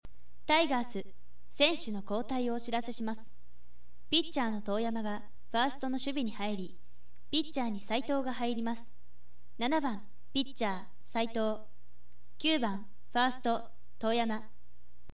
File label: narration; ナレーション